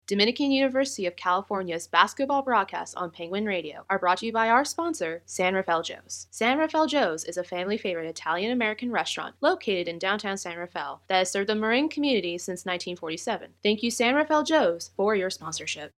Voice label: native ad; sanrafaeljoes